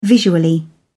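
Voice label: "visually", English, British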